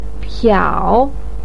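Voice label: "piao3", Mandarin Chinese, pinyin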